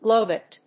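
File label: "globate", English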